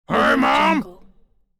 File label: cartoon pirate says hey mom; cinematicsounddesign